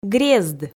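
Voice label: "грезд", Komi, female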